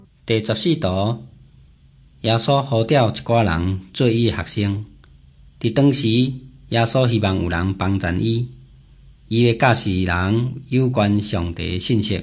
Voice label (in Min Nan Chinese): taiwanese, drama